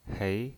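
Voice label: "hei", Mandarin Chinese, second tone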